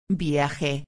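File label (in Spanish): "viaje", Spanish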